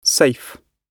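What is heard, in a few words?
"safe", British English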